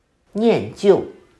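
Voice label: "念旧", Chinese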